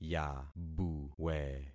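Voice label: "yabuwe", concatenated syllables; Affixing experiment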